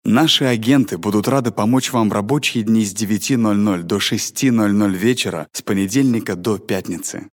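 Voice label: Russian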